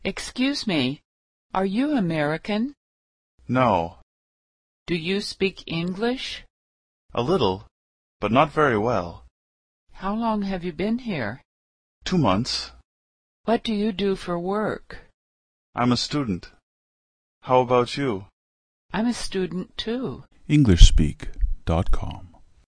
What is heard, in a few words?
Normal Speed Voice